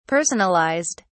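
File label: "personalised", British English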